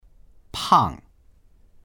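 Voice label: "pang4", Mandarin Chinese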